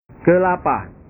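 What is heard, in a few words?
"kelapa", Indonesian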